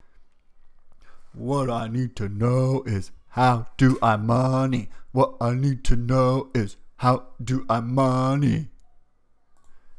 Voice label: bad voice